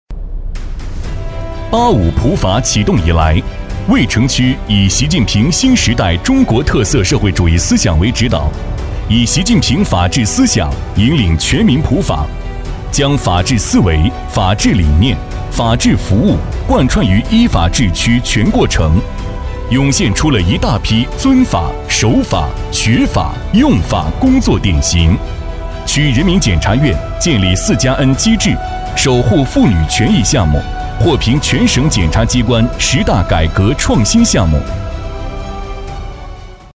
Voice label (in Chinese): 男 专题配音 大气成稳; 八五普法; 激情有力; 大气浑厚; 男121-专题配音《八五普法》-大气成稳